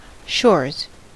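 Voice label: "shores", American English; pronunciations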